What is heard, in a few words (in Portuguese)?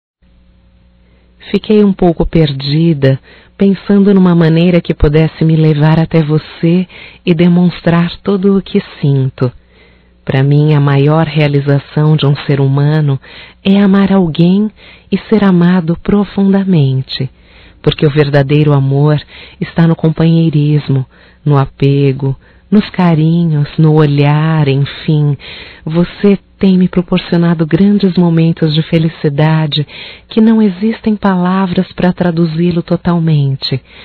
Romântico Feminino